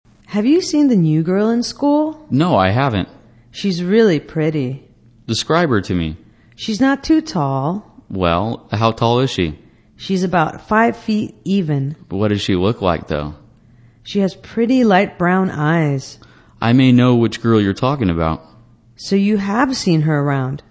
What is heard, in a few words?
english conversation Describing People